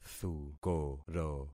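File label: "thukoro", concatenated syllables; Affixing experiment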